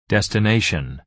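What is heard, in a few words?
"destination", American English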